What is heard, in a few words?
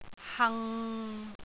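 "hang6", Cantonese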